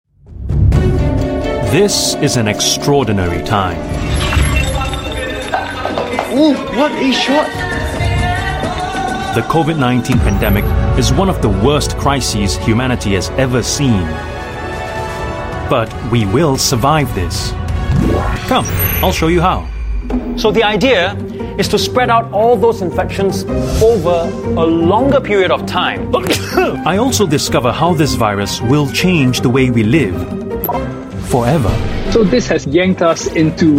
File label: Asian English Trailer; Why It Matters